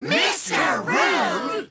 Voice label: angry heads shouting; mr rude

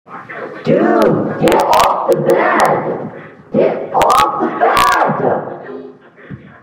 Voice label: dude get off the bed but scary

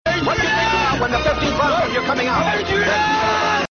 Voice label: yelling adrian aaadriiaannn; rocky

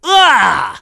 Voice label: ahhh; sonic

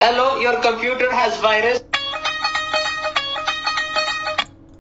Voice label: computer has virus call centre

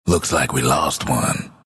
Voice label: announcer disconnect disconnected; dlc bastion

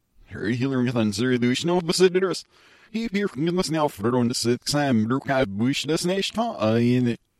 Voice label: backwards message

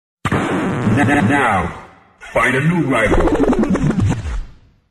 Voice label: announcer fight a new rival; sfiii 3rd strike

